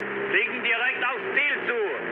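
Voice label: German near waypoint mood low, speech; War Thunder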